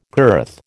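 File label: "girth", American English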